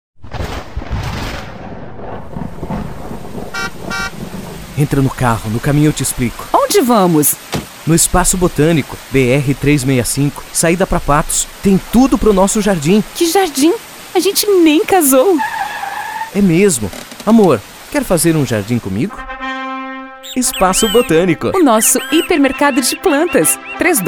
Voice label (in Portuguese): Spot; Espaço botanico